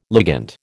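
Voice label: "ligand", American English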